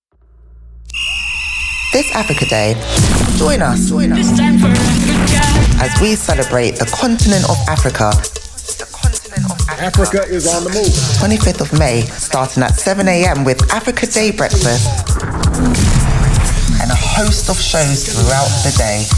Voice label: advert